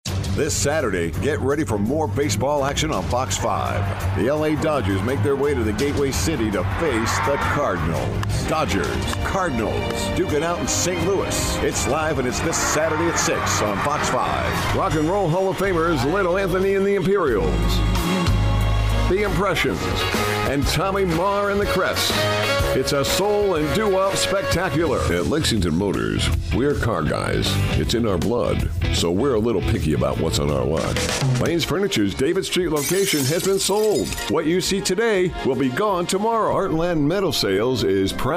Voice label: Commercial Male